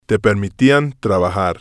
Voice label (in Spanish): "te Permitian Trabajar", spanish